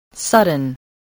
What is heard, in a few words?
"sudden", spelling